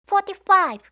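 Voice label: "forty-five", English; eng suuji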